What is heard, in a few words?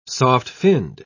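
"soft-finned", British English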